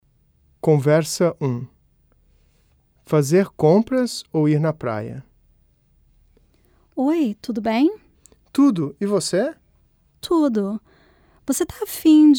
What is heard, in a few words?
Conversation